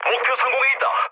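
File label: Korean near waypoint mood medium; War Thunder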